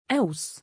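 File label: "2aws" male, Arabic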